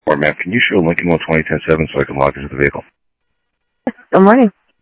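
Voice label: Sheriff East County, scanner audio trunk; MCSO - EAST; ThompsonPeakScot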